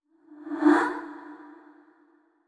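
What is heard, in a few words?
"What", voice; yamiko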